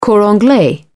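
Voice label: "cor anglais", British English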